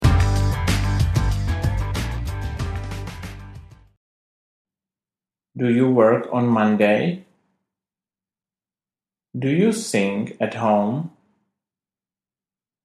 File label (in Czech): Audiokniha; 690 otazek v anglictine od zacatecniku po stredne pokrocile; Richard Ludvik